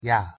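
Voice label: "ㅑ", Korean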